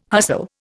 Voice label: "hustle", Indian

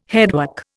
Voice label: "headwork", Indian

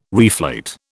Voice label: "reflate", British

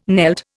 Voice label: "knelt", Indian

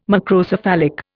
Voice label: "Macrocephalic", Indian

